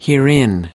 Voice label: "herein", us

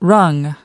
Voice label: "rung", us

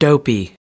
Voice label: dopey, us

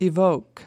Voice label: "evoke", us

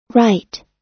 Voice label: "write", us

